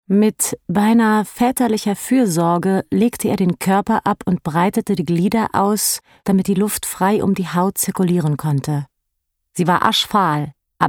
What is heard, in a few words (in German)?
Hoerbuch; Helen Fields